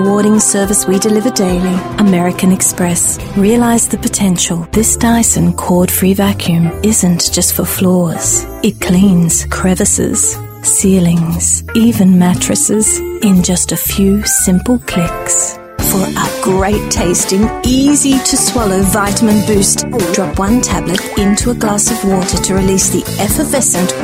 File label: english british voice over female commercial